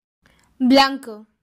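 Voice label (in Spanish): "blanco", Spanish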